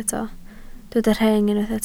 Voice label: "rheng", Welsh